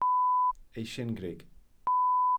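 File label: "eisingrug", Welsh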